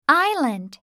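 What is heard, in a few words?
"island", English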